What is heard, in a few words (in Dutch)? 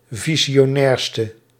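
"visionairste", Dutch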